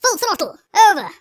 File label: "hurry", speech; Biggles